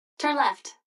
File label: GPS turn left fast; Blind Drive